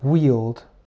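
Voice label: "wield", English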